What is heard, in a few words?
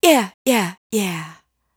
"Yea yea yea" adlib, dry vocals; Raw Vocals; Adlibs